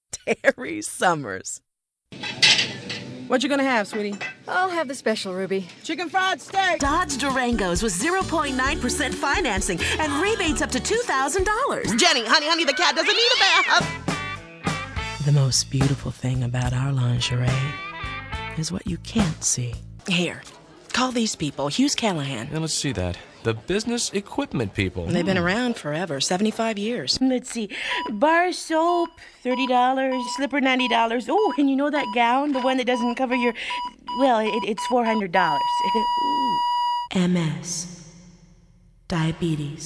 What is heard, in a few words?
Commercial, Female voices